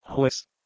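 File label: "h2wes", Proto-Indo-European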